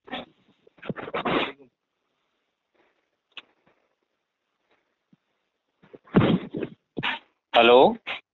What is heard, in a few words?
Call Recordings